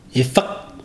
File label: "dare", Ibibio